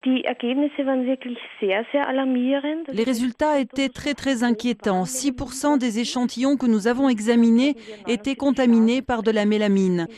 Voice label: en francais